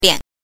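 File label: "lian", Mandarin Chinese pinyin, neutral tone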